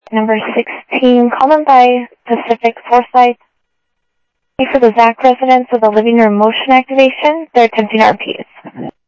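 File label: Sheriff East County, scanner audio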